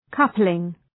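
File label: "coupling", English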